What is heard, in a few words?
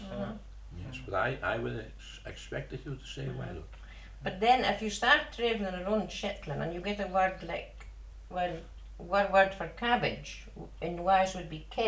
Northern and Insular Scots, Shetland - Walls & Sandness (western mainland)